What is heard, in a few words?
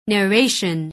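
"narration", English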